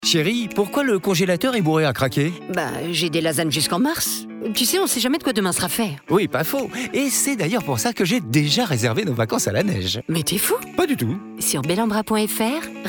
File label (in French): pub comedie; belambra lasagnes